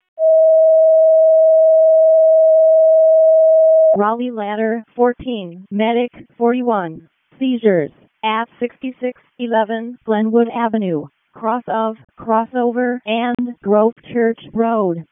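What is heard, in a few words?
Raleigh Fire Department ALERT, p25; wake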